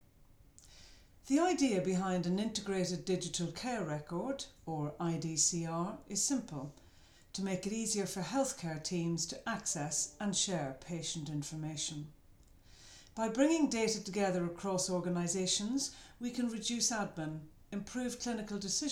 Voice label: Voiceover